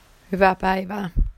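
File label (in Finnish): "paivaa", Finnish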